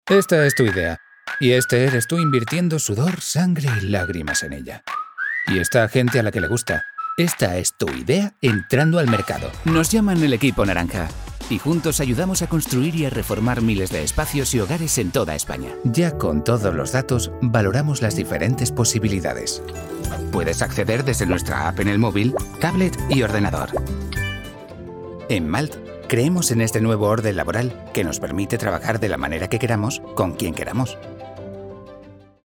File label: Spanish corporate videos